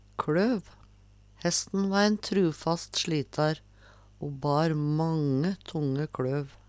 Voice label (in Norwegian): klov, Numedalsmål; Veggli